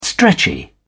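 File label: "stretchy", English, British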